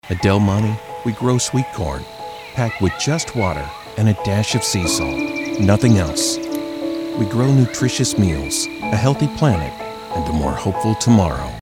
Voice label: Calm Reassuring Caring Commercial; Del Monte

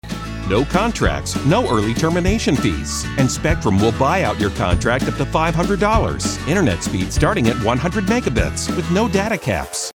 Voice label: Friendly Upbeat Informative Commercial; Spectrum

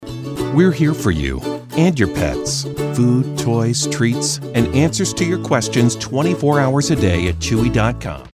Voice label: Positive Warm Pet Friendly Commercial; Chewy

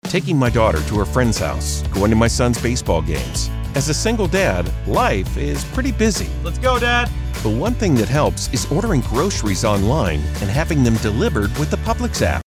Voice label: Conversational Guy Next Door Commercial; Publix

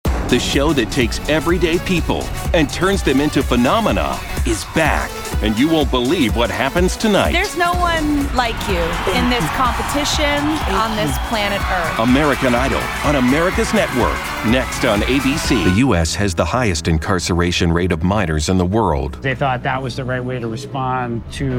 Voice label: Tv Promo

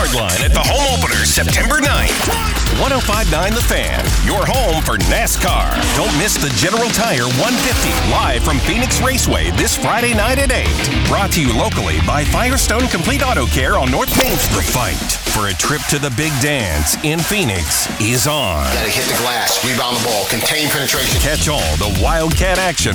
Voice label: Sports Radio Imaging; short version

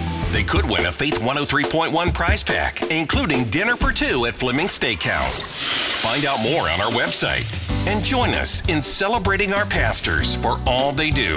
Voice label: Christian Talk Teaching

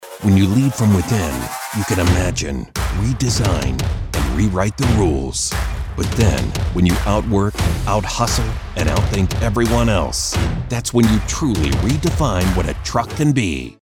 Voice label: Gritty Tough Confident Trucks Commercial; Ram

